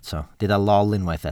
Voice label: "lol", Welsh

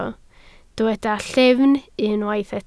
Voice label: "llyfn", Welsh

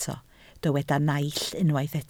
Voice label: "naill", Welsh

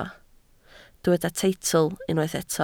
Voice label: "teitl", Welsh

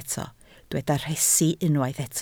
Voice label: "rhesi", Welsh

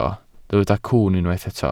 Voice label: "cwwn", Welsh

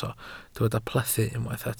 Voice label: "plethu", Welsh

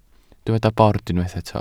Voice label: "bord", Welsh

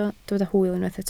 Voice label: "hwyl", Welsh

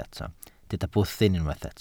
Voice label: "bwthyn", Welsh

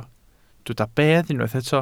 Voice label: "bedd", Welsh

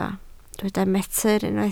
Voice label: "metr", Welsh

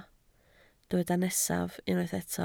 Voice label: "nesaf", Welsh

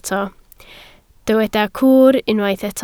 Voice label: "cwr", Welsh

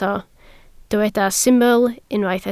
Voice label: "syml", Welsh

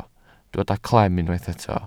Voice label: "clem", Welsh

